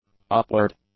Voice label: "upward", english; adjectives